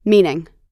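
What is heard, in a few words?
"meaning", American English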